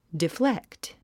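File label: "deflect", English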